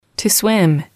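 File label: "to swim", English, female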